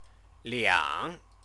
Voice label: "liang", Chinese

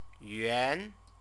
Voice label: "yuan", Chinese; CTCFL; University of Oxford

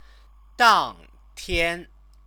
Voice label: "dangtian", Chinese; 当 天; same day; noun

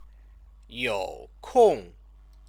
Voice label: "youkong", Chinese; 有 空; have free time; verb-object

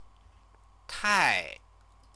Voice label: "tai", Chinese

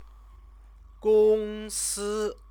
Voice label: "gongsi", Chinese; 公 司; company; noun; Chinese lessons

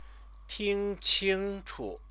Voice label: "tingqingchu", Chinese; 听 清 楚; hear clearly; resultative verb phrase

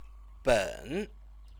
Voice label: "ben", Chinese; 本; measure word

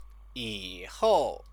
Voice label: "yihou", Chinese; 以 后; time word

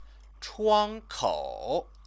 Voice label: "chuankou", Chinese; 窗 口; chuāngkŏu; window; noun

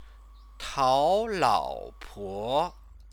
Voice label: "taolaopo", Chinese

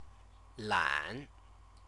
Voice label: "lan", Chinese

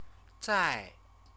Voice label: "zai", Chinese

